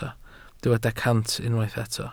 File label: "cant", Welsh